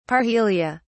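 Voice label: "parhelia", British English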